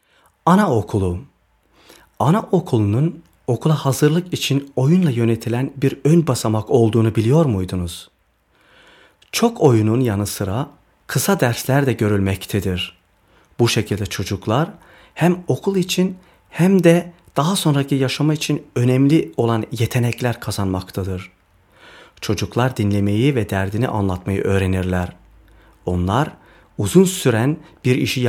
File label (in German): tuerk